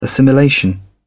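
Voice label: "assimilation", British English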